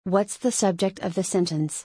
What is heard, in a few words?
whats the subject, en